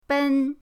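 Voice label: "ben", Mandarin pinyin, first tone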